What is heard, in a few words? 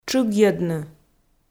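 "тшыгйӧдны", Komi, female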